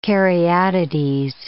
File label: "caryatides", English